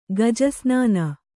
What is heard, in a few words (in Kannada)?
"ಗಜಸ್ನಾನ", Kannada